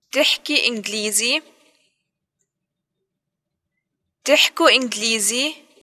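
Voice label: introductions do you speak english, arabic levantine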